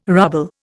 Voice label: "ruble", Indian